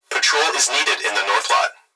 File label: Emergency Human Young Male Voice Plant Security Dispatch Patrol Is Needed In The North Lot; emergency effects